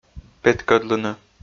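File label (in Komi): "петкӧдлыны", Komi, male; audio dict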